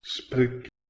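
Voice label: "sprgh", Proto-Indo-European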